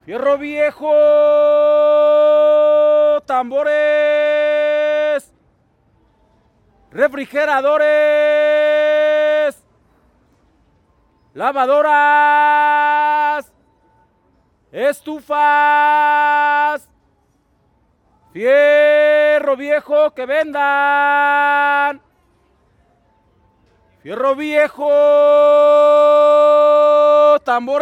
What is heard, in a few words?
human male scrap dealer shouting streets mexico city; felix blume